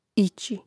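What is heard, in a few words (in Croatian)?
"ići", Hrvatski; mreznik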